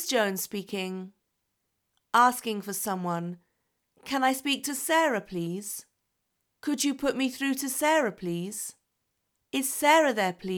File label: Starting a phone call